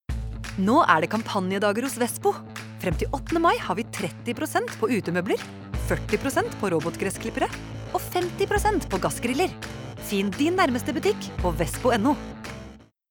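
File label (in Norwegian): reklame, Norwegian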